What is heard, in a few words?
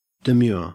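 "demure", Australian English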